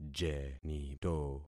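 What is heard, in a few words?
"jenito", concatenated syllables; Affixing experiment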